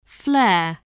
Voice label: "flair", spelling

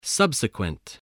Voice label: "subsequent", spelling

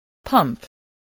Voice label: "pump", voice spelling

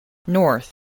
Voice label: "north", voice, spelling

